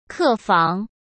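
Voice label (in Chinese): "客房", Mandarin Chinese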